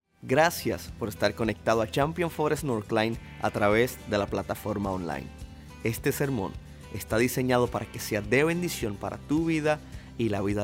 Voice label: Sermon Spanish